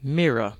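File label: "mirror", British English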